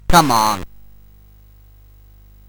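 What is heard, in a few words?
Bouncy Balls speech, MOS 8580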